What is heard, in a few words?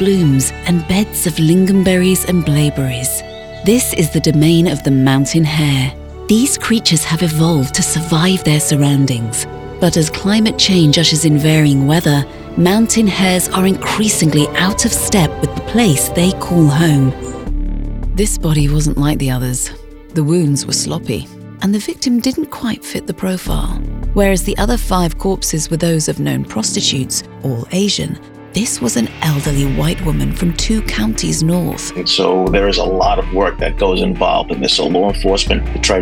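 Documentary, voice-over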